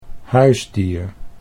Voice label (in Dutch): "huisdier", Dutch